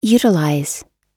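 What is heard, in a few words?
"utilize", American English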